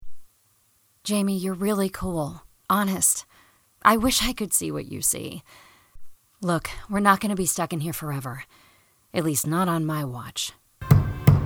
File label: Animation